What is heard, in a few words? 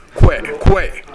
"kowae", minamiyamagata; 疲れる。体調不良。疲れて苦しい。